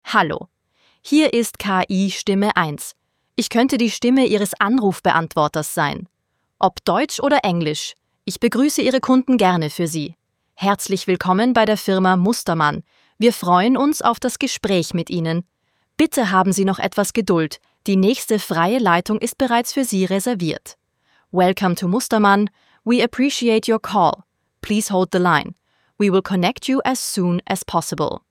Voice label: KI-Stimme

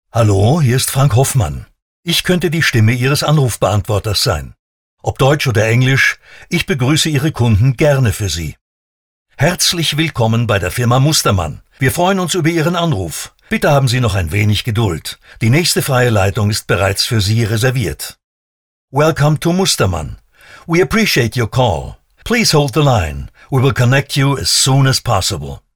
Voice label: Sprecher Telefonansagen

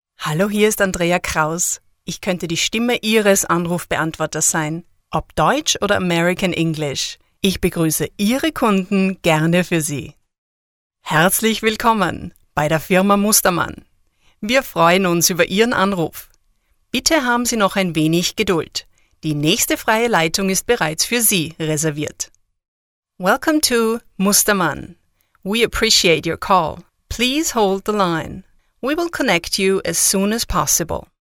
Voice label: Sprecher Telefonansagen

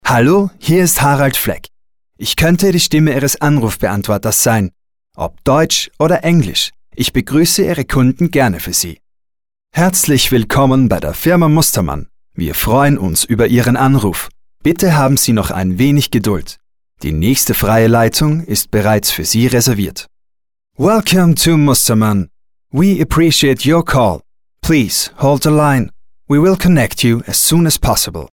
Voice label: Telefonansagen

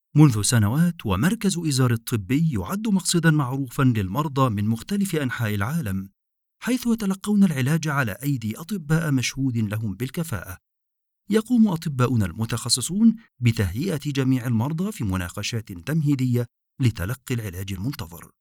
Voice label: Sprecher Native speaker

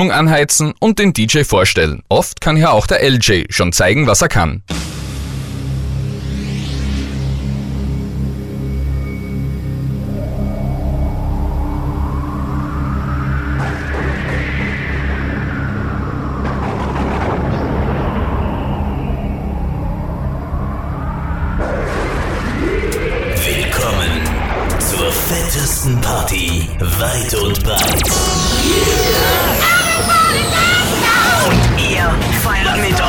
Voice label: Jingles DJ Jingle Opener

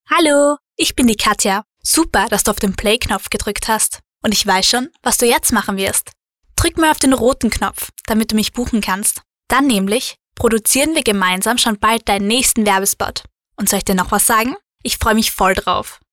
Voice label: Sprecher Kinder Neutral; Kinderstimmen

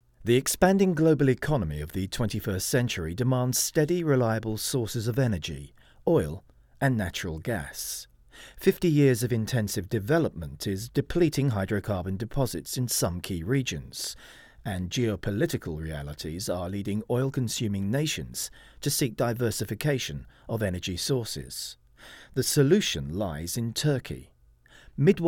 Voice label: Sprecher Native speaker

